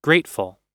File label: "grateful", pronunciation